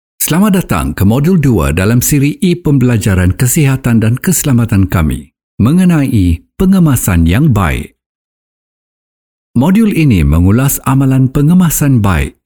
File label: Malay eLearning